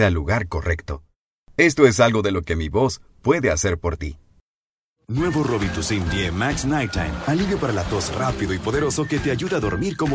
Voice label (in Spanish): locutor mexicano; mexico